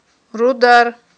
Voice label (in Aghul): "рудар", aghul